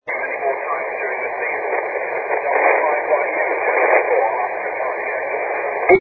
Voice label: 80m ssb

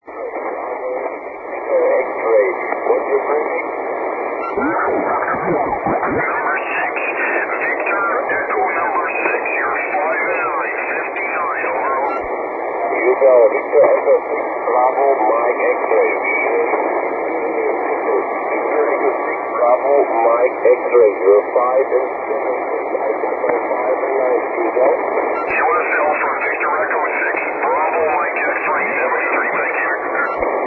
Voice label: 80m ssb; FT-1000d; SSB-SP; TX9; Chesterfield Island DX-pedition on SSB.

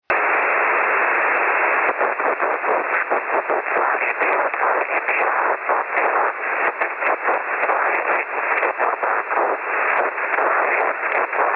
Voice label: 3cm QSO; 10ghz

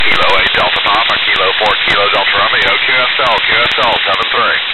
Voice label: fm voice

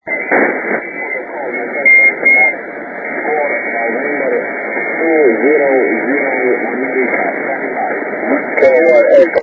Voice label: 80m ssb; 4N200A; SSB-SP; FT-1000d

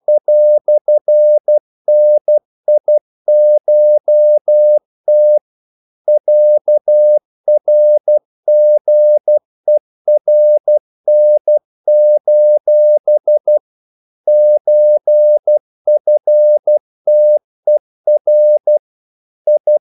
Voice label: deutsch

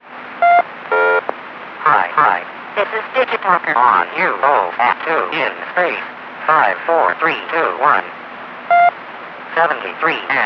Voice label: oscar digitalker

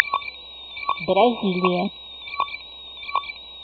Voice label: voz ISS

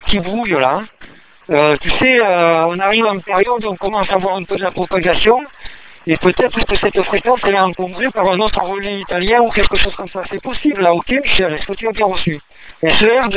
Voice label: dstar mbelib

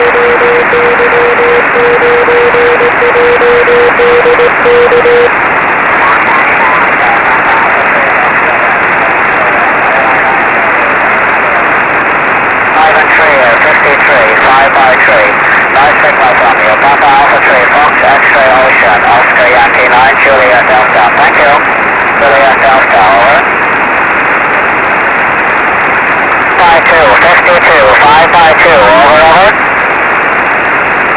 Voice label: 23cm ssb; TR QSO; IP62MB; USB

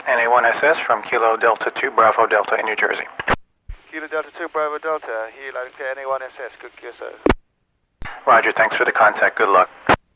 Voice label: Mike Foale QSO

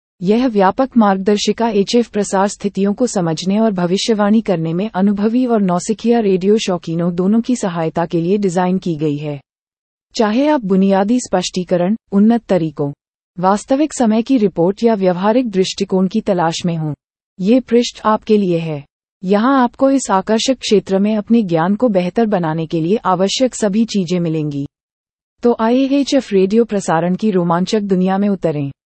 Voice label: Hindi

